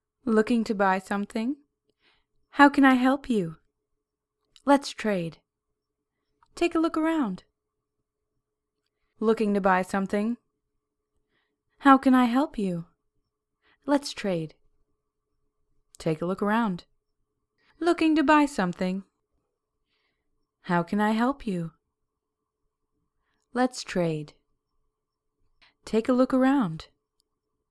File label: merchant female